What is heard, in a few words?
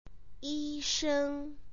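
"yi sheng", Mandarin Chinese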